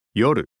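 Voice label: "yoru", Japanese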